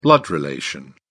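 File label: "blood relation", British English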